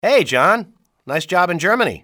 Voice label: hey john, english male; XBOX; civ